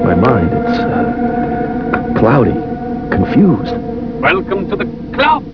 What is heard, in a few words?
Confused